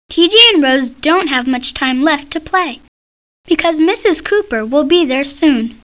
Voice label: Narrator; T.J. Finds a Friend

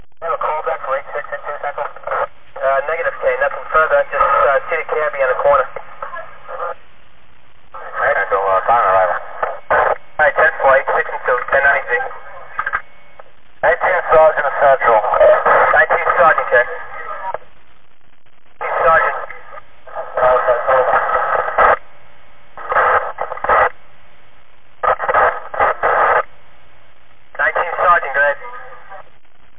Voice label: polradio

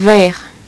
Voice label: "verre", French; HyperSound™2

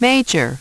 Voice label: Key Major, Voice, Prompts; Opcode Systems

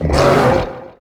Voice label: giant vox

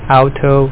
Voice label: car, German; WordMatch

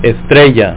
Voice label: star, Spanish; WordMatch

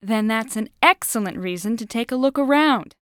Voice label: ghost Whining, english; Keepsake; basement

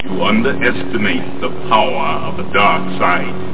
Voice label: threat

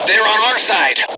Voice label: ff friend, M Voice English

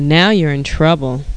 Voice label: TEASE

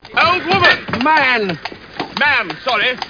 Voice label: Old Woman

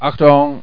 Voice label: WARNING, german

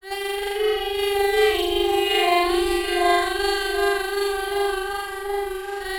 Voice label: VOICE FX, Drum Bass, 160; eJay

